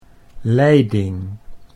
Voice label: "leiding", Dutch